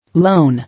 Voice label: "Loan", English

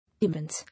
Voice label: "Immense", English

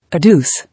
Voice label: "Adduce", English

